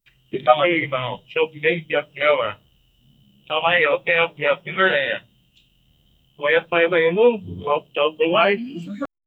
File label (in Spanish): un hombre dominicano habl